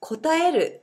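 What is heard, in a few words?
"kotaeru", Japanese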